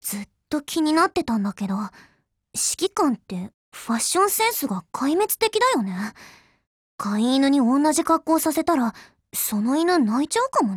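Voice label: dialogue, Japanese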